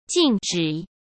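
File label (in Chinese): "静止", Mandarin Chinese; hsk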